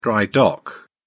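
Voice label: "dry-dock", British English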